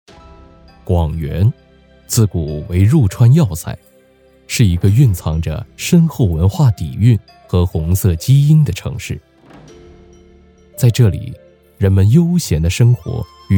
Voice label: 国语 舌尖专题 火锅; 广元火锅

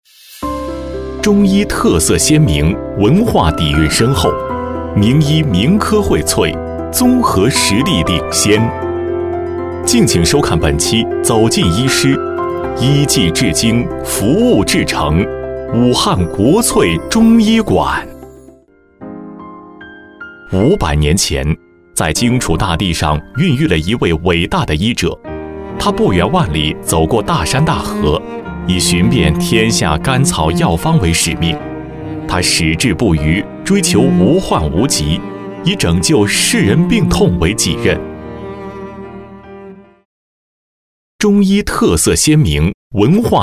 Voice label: 专题-中医

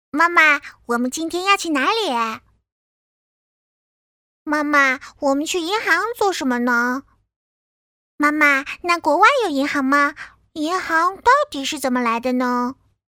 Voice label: 角色女童

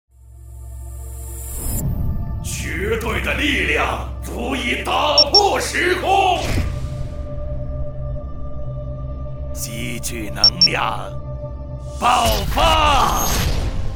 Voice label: 游戏样音

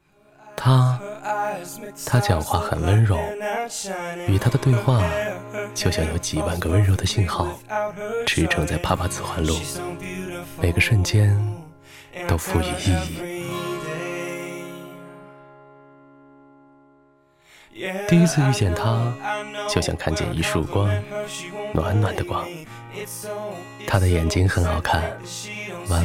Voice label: 男生独白

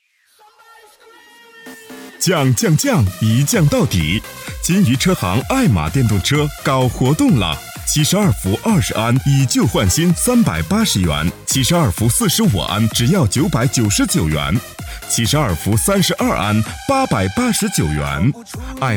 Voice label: 电动车激情促销; 艾玛

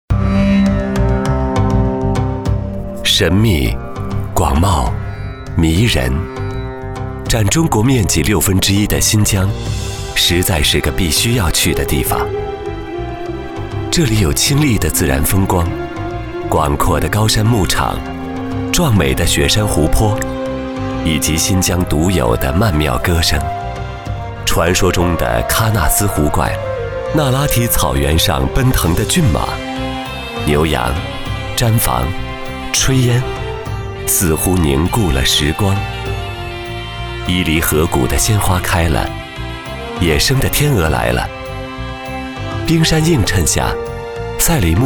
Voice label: 品牌 硬广; 品牌新疆105秒硬广

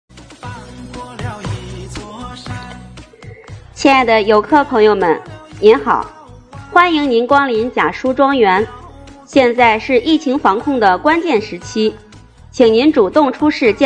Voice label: 温馨提示

